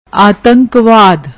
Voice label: "atankwad", Punjabi